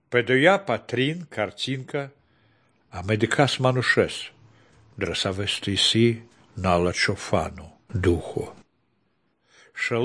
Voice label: good news baltic romani